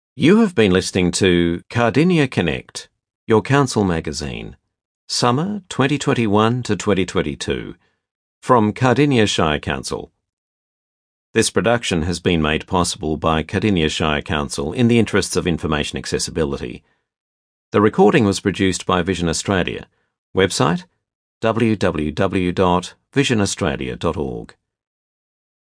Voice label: closing announcement